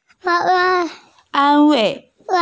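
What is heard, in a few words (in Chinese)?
"an wei", Mandarin Chinese, female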